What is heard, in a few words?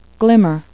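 "glimmer", English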